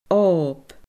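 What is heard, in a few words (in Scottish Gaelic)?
"òb", Scottish Gaelic; bay, cove; shallow pool; depression (in the ground)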